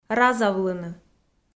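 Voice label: "разавлыны", Komi, female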